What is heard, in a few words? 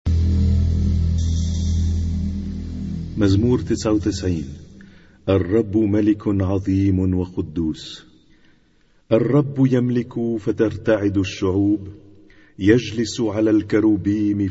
Arabic Psalm Zaboor